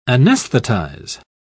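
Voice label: "anaesthetize", British English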